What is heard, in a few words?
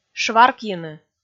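"шваркйыны", Komi, female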